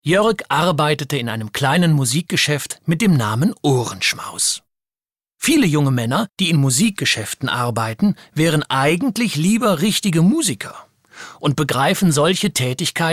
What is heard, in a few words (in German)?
erzaehlung